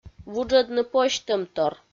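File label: "вуджӧдны позьтӧмтор", Komi, female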